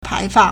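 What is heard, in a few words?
"pai2fang4", Mandarin Chinese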